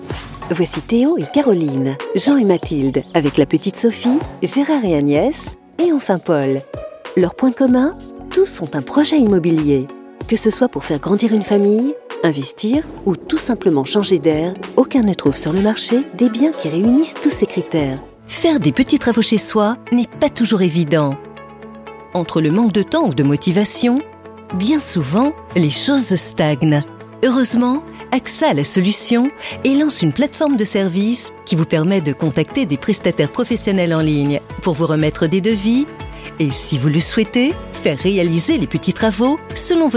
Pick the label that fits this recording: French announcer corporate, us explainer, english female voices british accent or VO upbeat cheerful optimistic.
French announcer corporate